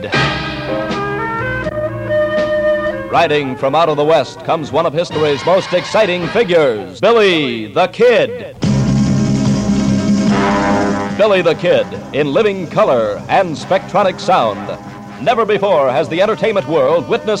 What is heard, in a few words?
Western Promo